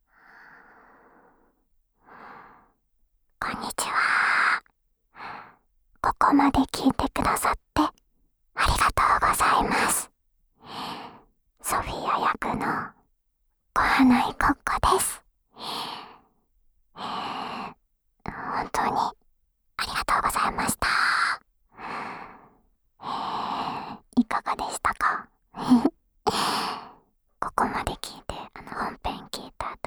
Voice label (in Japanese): フリートーク